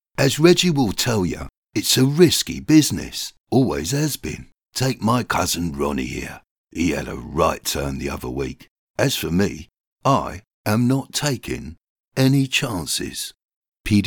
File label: commercial